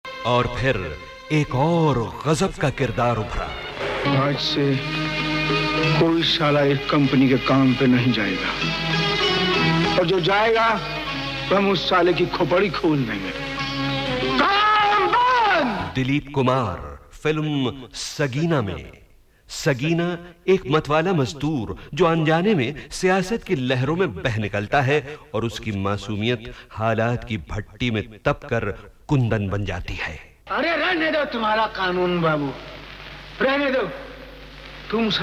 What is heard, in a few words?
Commentary; sagina 1974